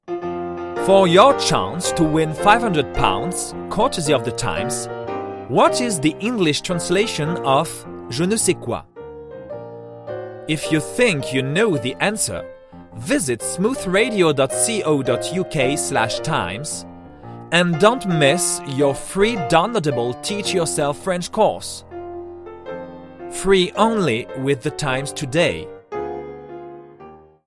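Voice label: english brit light medium naturel, voix off masculine; voix off masculine naturelle, posée, souriante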